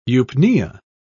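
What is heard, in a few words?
"eupnea", British English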